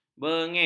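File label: "bengis", Malay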